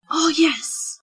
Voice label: "oh yes", sound notification